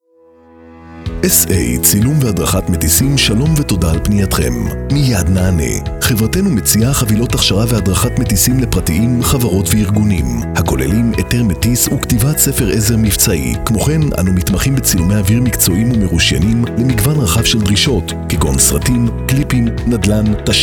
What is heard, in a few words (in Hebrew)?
פתיח טלפוני; צילום והדרכת מטיסים